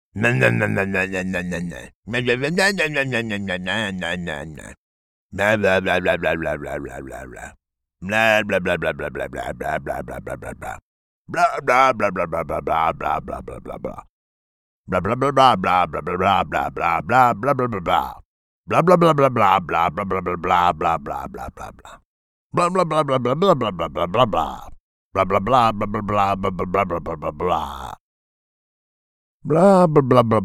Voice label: Incoherent Nonsensical Babbling